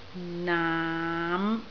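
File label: "naam5", Cantonese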